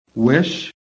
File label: "WISH", american